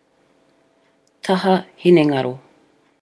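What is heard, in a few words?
"taha hinengaro", Māori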